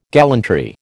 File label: "gallantry", American